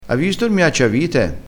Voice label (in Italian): "a posto", Crespinese